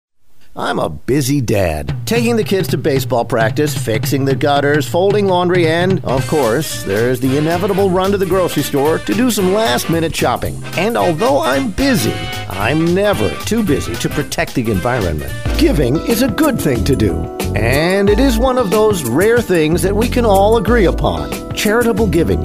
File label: commercial VO